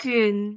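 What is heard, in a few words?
"gyun4", Cantonese; microsoft Hiu Maan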